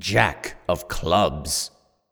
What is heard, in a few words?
Voiceover; Jack Of Clubs